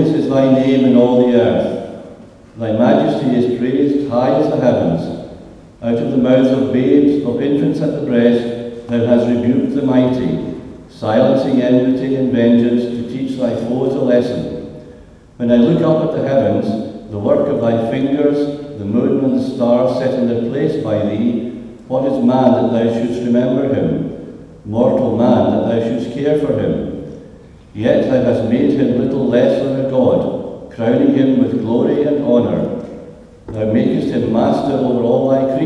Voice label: Reading Psalm 8